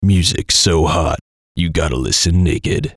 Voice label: VOICE COMICAL; Music So Hot; Funny Sound; Radio Voice; Blastwave